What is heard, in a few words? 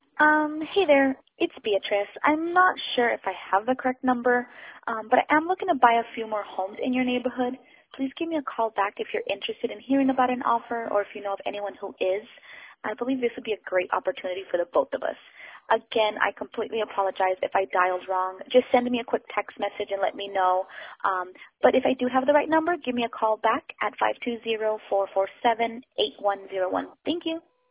voicemail; robocalls; oasis connections guide to online safety